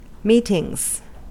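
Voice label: "meetings", American English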